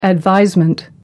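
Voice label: "advisement", British English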